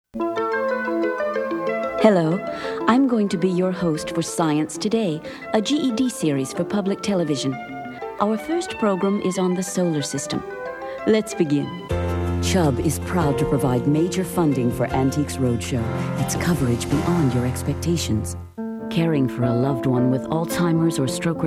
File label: corporate